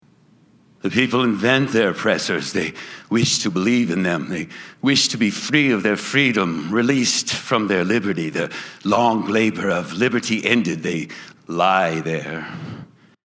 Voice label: Breathy; Fall of the City